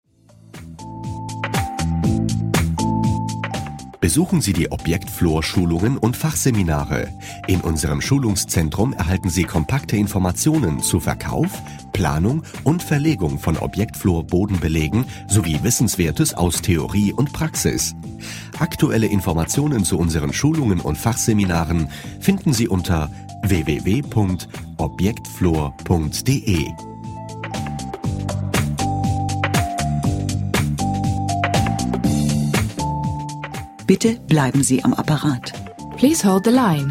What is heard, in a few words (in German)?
Werbespot; Objectflor